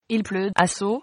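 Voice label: rain, French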